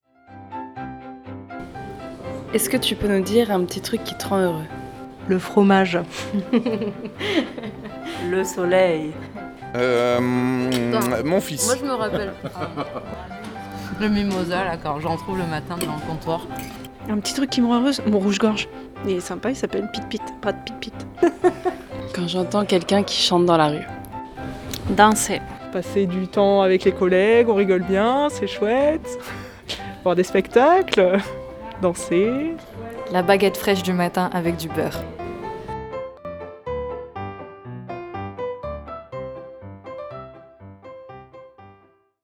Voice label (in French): microtrottoir; graine de voix